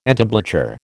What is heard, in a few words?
"entablature", American